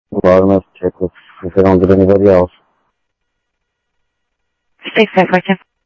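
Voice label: Sheriff East County, scanner audio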